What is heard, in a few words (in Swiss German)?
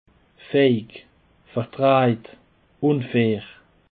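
"feig", Bas Rhin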